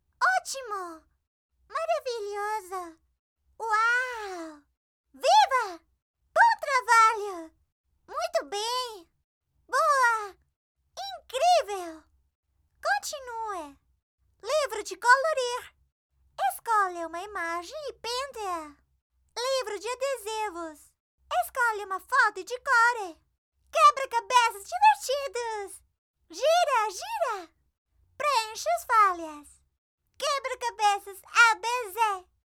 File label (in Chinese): 葡萄牙语, 老师样音; 外籍-葡萄牙语 PORTUGUESE 1906号老师样音